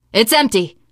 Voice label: area clear, teen girl; voice; source engine; left 4 dead 2; survivor